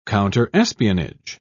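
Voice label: "counterespionage", British English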